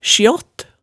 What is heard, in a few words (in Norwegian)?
"sjaatt", hemsedalsmaalet